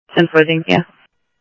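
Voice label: police department, scanner audio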